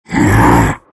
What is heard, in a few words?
anger; Terrorblade